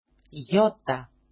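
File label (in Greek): "iota", Greek; (γ)ιώτα; ['jota]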